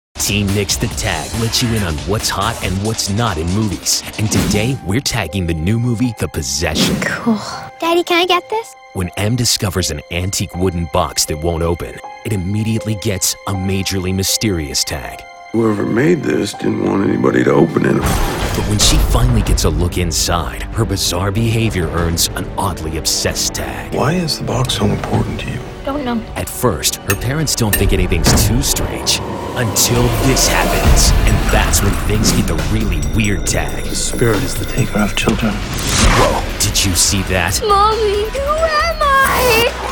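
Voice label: Trailer, English American, male; Trailer General public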